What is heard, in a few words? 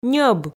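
"ньӧб", Komi, female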